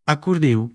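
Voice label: "arcordeon", Occitan Languedocien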